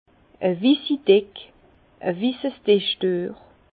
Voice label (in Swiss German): "wissi", Bas Rhin; vin; nappe blanche